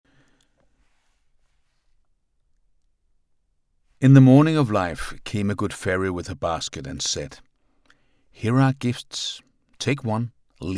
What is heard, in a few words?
Voice sample English danglish